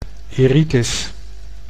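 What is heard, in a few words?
"iritis", Dutch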